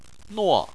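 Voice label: "nuo" fourth tone, Chinese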